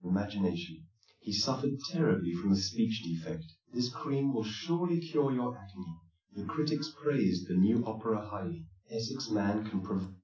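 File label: male speech; SiSEC10; underdetermined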